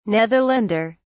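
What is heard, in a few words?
"netherlander", British English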